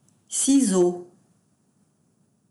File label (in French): "ciseaux", French; wymowa w języku francuskim